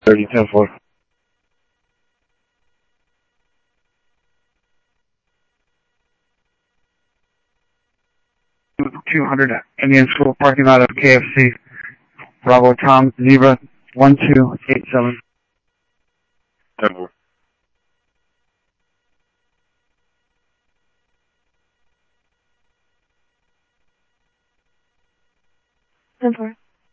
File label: Sheriff-WestCnty, scanner audio